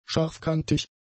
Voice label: "scharfkantig", german; adjectives